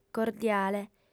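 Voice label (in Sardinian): "cordiale", female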